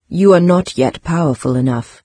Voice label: low power, en-UK, NARRATOR; Fate